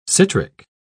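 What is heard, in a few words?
"citric", British English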